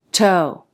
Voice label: "toe" toʊ, English

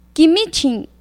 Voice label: "kimichin", Náhuatl; ratón

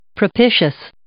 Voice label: "propitious", English

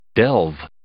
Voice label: "delve", English

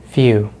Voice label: "few", English; Consonants in American English; easypronunciation

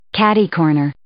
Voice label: "catercorner", English

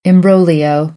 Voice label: "imbroglio", American; oʊ - əʊ

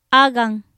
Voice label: "agaŋ", Popoloca; comal; INALI

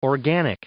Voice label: "organic", English

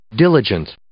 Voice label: "diligent", English

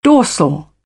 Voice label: "dorsal", British; ɔːr - ɔː; English

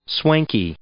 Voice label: "swanky", English

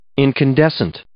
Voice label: "incandescent", English

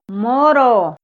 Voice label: "moro", Mazahua; INALI; AprendeMazahua

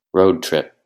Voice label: "road trip" noun

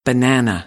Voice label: "banana", American English; æ - ɑ

